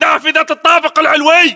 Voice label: location top floor window, battlechatter, english